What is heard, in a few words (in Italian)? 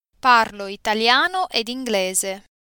parlo italiano ed inglese